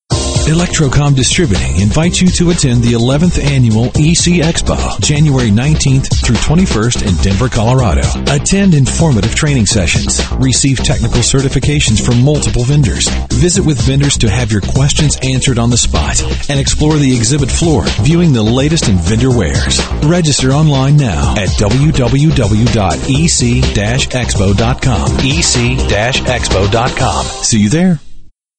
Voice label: Electro Comm